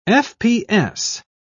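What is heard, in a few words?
"fps", English, British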